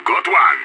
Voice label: enemy down, radio; IDF